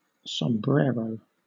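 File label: "sombrero", British English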